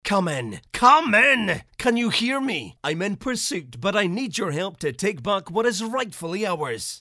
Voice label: english scottish video games